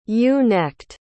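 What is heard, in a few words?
"ewe-necked", English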